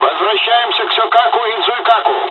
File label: dialogs russian; War Thunder